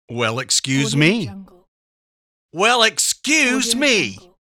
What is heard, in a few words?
male well excuse me; applehillstudios